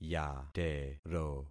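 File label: "yatero", concatenated syllables; Affixing experiment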